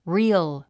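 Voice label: "reel", English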